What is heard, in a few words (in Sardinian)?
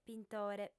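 "pintore", female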